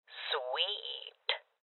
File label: Voices Loot Collect Female; rebel cops 2019 gamerip